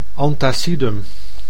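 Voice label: "antacidum", Dutch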